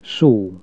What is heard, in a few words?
word, hungarian Consonants